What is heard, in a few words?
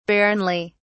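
"barrenly", British English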